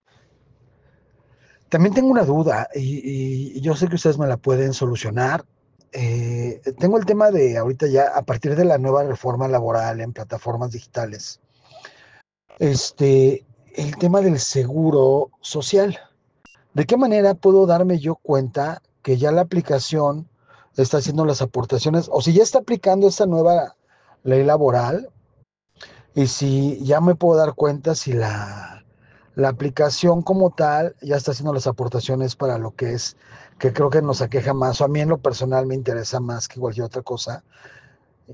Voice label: whatsapp ptt